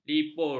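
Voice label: "lipur", Malay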